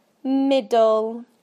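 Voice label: "middle", British English; PictureDictionary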